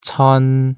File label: "cheon", Korean